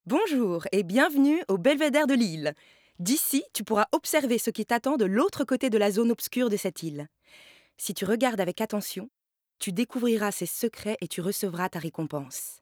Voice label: Narration